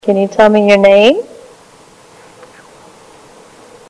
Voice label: reverse help

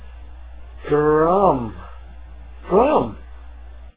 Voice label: "drum", pronounce it

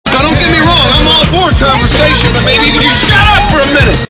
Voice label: conversation

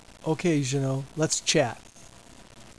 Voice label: Chat

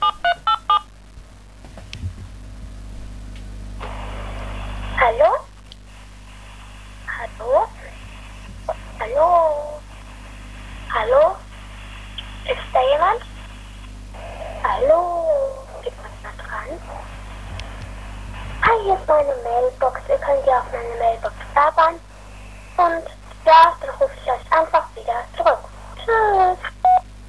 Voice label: Mailbox

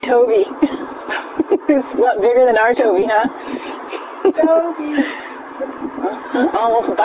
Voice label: woman saying

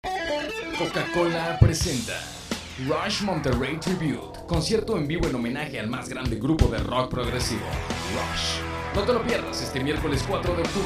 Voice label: spot radio

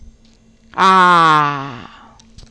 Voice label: Spanish A

